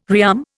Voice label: "rearm", Indian